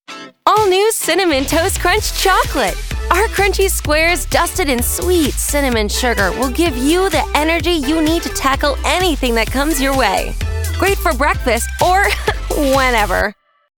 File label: bright lively punchy fun, commercial promo; cinnamon toast crunch